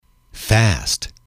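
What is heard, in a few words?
"fast", English, male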